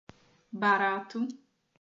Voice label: "barato", portuguese